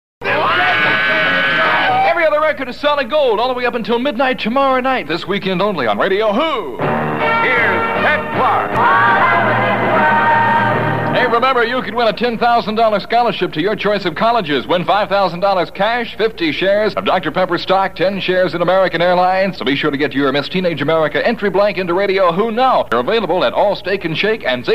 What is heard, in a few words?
aircheck; 1967